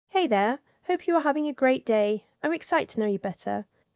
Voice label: British Soft